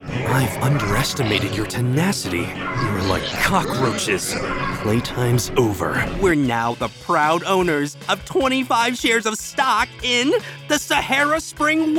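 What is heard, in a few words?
animation men, voices men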